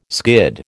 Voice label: "skid", American